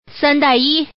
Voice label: Woman sandaiyi

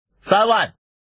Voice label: man

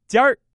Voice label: single, male

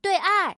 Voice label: pair, female

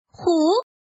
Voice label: woman

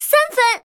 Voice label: woman

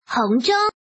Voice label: woman

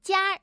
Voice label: single 1, female

